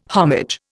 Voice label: "homage", Indian